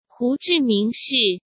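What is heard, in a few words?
"huzhimingshi", Chinese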